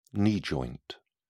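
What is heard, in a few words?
"knee joint", English, British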